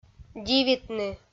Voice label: "дивитны", Komi

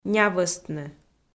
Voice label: "нявӧстны", Komi

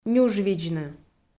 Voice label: "нюжвидзны", Komi

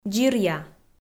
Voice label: "дзиръя", Komi

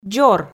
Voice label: "дзор", Komi

